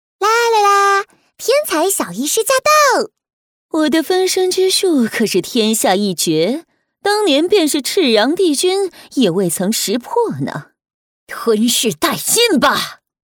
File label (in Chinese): 女 角色 不同年龄性格女音